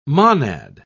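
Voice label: "monad", British English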